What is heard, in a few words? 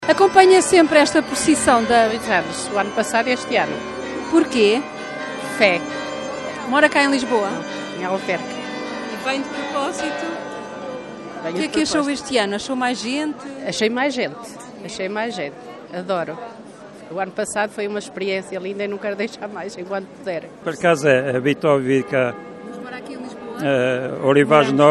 vox pop